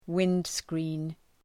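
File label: "windscreen", English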